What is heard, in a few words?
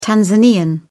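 "tanzanian", British English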